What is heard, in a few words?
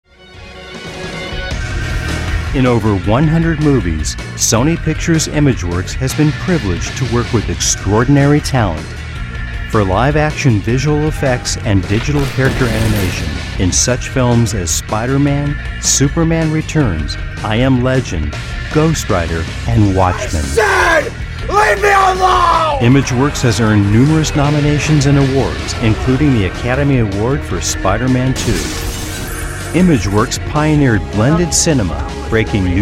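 narration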